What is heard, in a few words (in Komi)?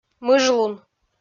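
"мыжлун", Komi, female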